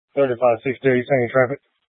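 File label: Police Dispatch, scanner audio recording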